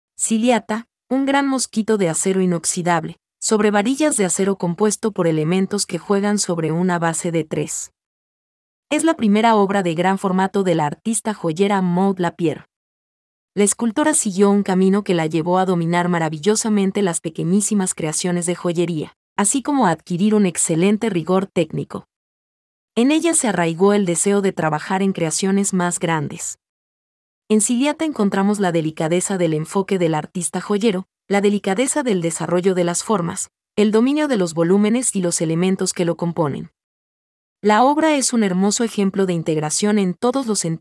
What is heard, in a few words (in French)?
espagnol